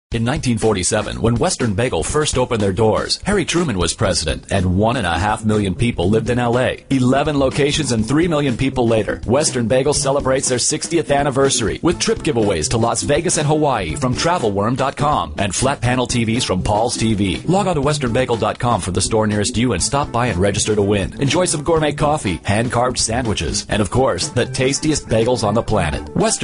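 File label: US, commercial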